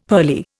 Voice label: "pearly", Indian; Dictionary

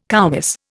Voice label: "koumiss", Indian

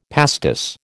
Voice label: "pastis", American

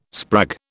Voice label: "sprag", British

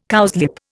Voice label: "cowslip", Indian

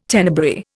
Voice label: "Tenebrae", Indian

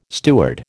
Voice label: "steward", American

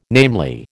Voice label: "namely", American

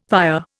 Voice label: "père", Indian; Dictionary

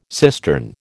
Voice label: "cistern", American

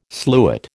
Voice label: "sluit", American

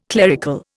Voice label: "clerical", Indian

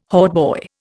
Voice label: "hautboy", Indian

